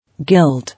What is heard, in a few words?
"Gild", English